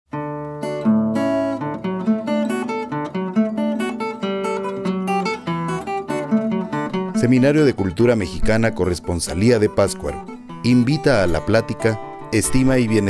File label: promo